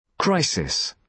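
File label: "crisis", British English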